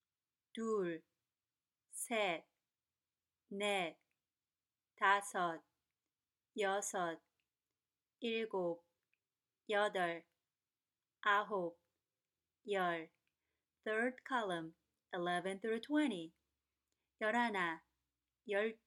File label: Pure Korean Numbers